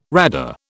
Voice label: "RADA", British

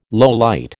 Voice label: "lowlight", American

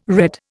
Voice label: "red", Indian

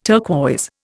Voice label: "turquoise", Indian

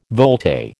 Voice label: "volte", American

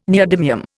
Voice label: "neodymium", Indian

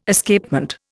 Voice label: "Escapement", Indian

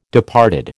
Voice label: "departed", American

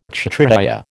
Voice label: "Kshatriya", American

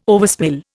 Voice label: "overspill", Indian

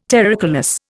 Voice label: "terricolous", Indian

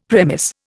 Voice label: "premiss", Indian; Dictionary

